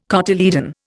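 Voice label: "cotyledon", Indian English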